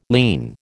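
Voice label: "lien", American English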